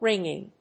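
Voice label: "ringing", English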